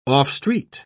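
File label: "off-street", British English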